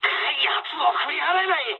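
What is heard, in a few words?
aircraft damaged mood med, speech japanese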